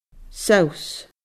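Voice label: "sabhs", Scottish Gaelic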